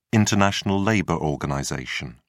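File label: "international labour organization", British English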